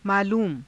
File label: "maaluuma", Hindi